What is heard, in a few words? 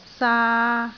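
"saa3", Cantonese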